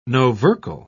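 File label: "novercal", British English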